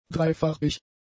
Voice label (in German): "dreifarbig", German; adjectives